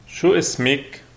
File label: name, lebanese arabic